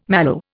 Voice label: "mallow", Indian

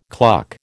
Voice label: "cluck", American

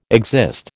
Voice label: "exist", American

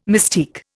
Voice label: "mystique", Indian

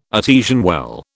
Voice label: "artesian well", British

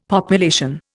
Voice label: "population", Indian; Dictionary

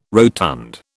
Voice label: "rotund", British

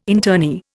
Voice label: "internee", Indian

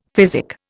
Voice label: "physic", Indian; Dictionary

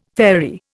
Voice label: "fairy", Indian

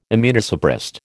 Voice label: "immunosuppressed", American

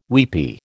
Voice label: "weepy", American

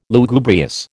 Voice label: "Lugubrious", American

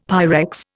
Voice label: "Pyrex", Indian; Dictionary

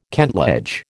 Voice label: "kentledge", American

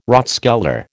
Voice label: "rathskeller", American

